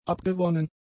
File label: "abgewonnen", German; adjectives